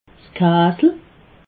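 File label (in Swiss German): "kasel", Alsatian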